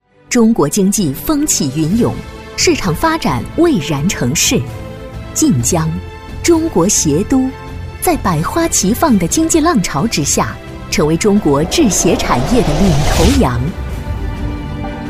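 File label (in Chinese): 女-专题解说【大气坚定】; 磁性甜美; 高端大气; 华阳鞋材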